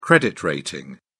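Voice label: "credit rating", British English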